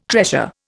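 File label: "treasure", Indian English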